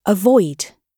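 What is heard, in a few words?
"avoid" British English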